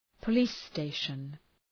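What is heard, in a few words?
"police station", English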